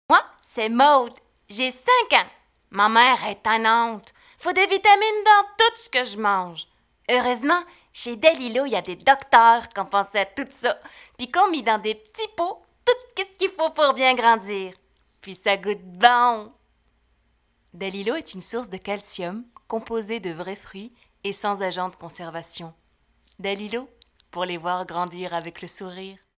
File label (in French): Demo Voix; Dalilo